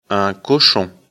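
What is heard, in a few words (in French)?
"cochon", French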